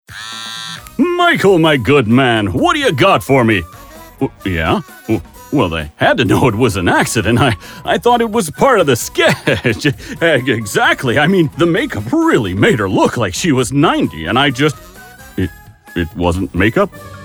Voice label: vo character; global voice acting academy